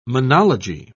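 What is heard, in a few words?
"monology", British English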